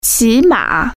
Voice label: "horseback riding", Chinese